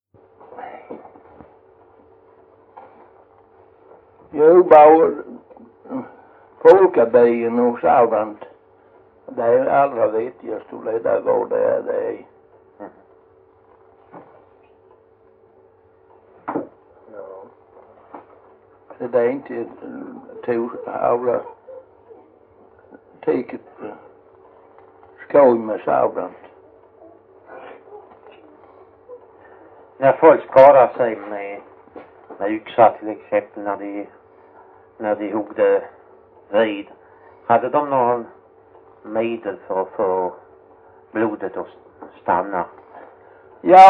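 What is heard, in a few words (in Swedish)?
Jämshög Blekinge, Svenska sagor dialekter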